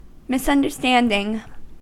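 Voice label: "misunderstanding", American English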